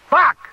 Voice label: "fuck", expletives